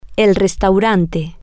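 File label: restaurant, Spanish, female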